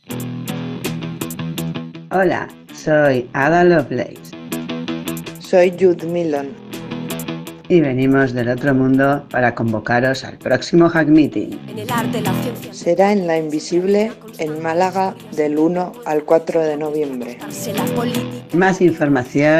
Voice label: spot